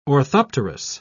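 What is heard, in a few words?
"orthopterous", British English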